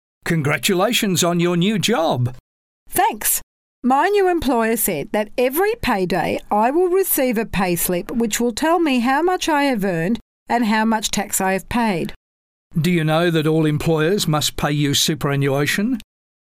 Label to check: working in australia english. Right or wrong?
Right